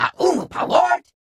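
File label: Grunt enter vehicle driver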